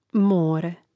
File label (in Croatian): "more", Hrvatski